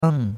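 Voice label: "eng", Chinese, fourth tone; Cours de Chinois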